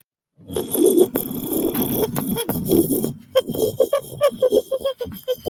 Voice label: voice message